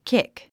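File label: "kick", English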